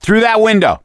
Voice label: misc directional, voice; gambler